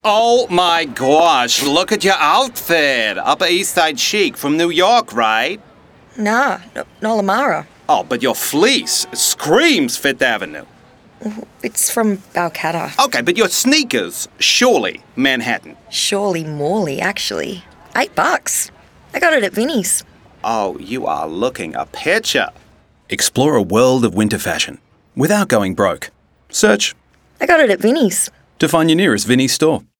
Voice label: AMERICAN MALE RADIO; 30s; Vinnies; wildlings; WA suburbs; fashion capitals